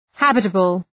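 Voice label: "habitable", English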